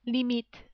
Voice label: "limite", French

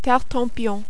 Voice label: "tartempion", French

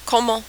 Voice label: "comment", French

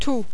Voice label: "tout", French

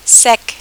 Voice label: "sec", French

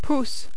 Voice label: "pouce", French

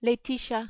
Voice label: "Laetitia", French

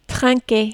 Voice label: "trinquer", French

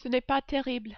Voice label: "ce n est pas terrible", French

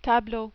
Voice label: "tableau", French

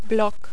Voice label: "bloc", French

